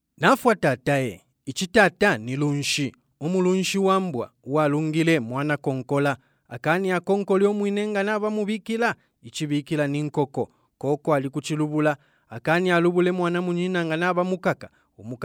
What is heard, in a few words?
male bemba voice over narrative